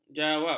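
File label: "jawab", Malay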